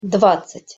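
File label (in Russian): "dvadcat", Russian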